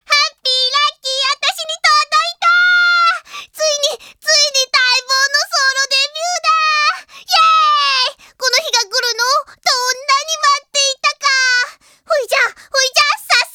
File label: Opening Narration; Ojamajo Doremi; Doremi Hen